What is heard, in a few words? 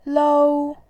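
"lou", Mandarin, tone 1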